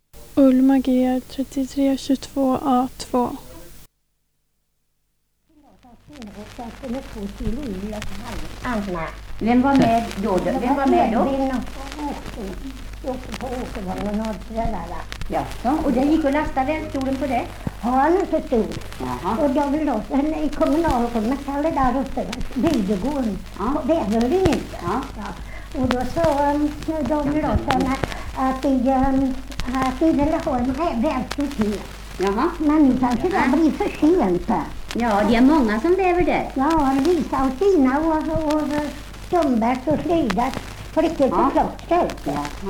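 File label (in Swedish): Hagelberg Västergötland, dialekter; Folkminnen; Svenska sagor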